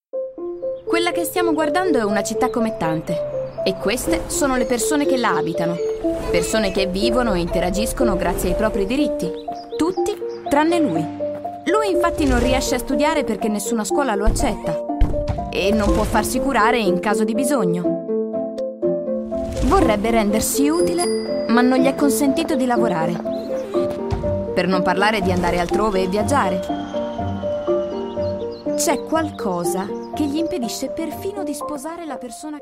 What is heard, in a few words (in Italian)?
Spot; Apolidia; Tutti tranne lui